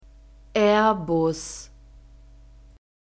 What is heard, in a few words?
"airbus", German; nouns gender feminine